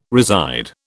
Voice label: "reside", British